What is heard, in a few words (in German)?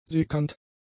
"sickernd", German; adjectives